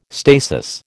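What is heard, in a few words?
"stasis", American English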